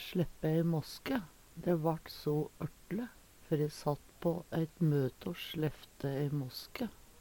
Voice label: "sleppe ei måske", Numedalsmål; prompe

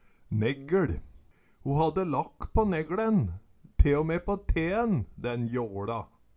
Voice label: neggeL, Numedalsmål

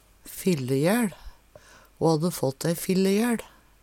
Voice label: "fillegaeL", Numedalsmål

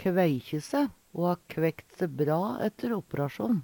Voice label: "kveikje se", Numedalsmål

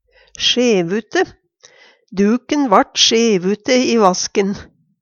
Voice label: sjevute, Numedalsmål; Duken vart sjevute i vasken.; Adjektiv

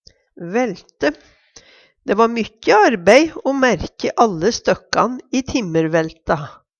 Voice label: vaelte, Numedalsmål; Dæ va mykje arbei o mærkje alle støkkan i timmervælta.; Substantiv hokjønn

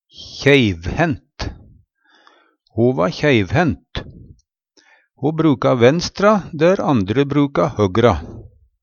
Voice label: kjeivhendt, Numedalsmål

